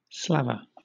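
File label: "slaver" English, British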